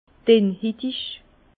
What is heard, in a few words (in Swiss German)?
"dennhitig", Bas Rhin; vin; peau mince